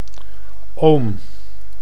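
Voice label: "oom", Dutch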